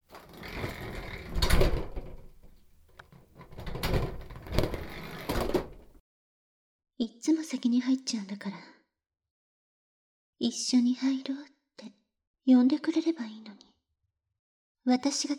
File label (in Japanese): 姉さんとお風呂, ASMR